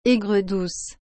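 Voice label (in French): "aigre-douce", French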